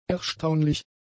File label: "erstaunlich", German; adjectives